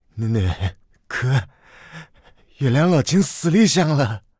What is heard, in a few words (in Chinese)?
疯子, peiyin